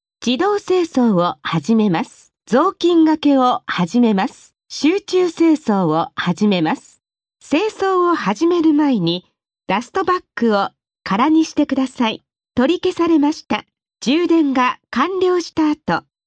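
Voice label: Japanese female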